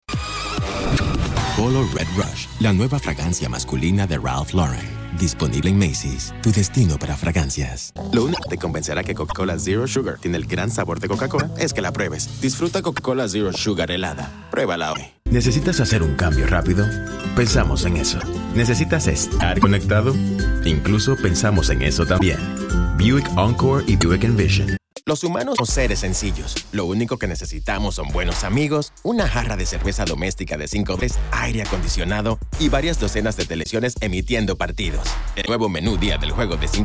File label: Spanish